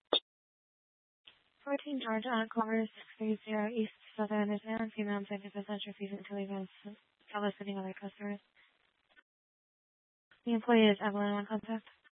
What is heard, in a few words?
Tempe PD, scanner; Rgnl Wireless Co-op (RWC)